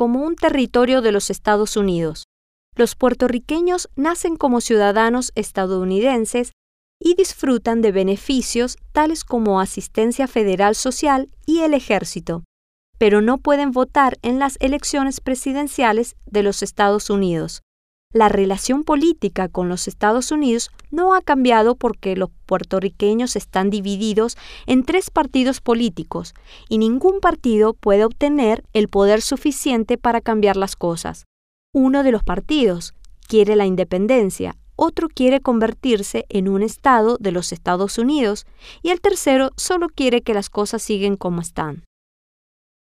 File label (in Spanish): la isla y sus aproximadamente, spanish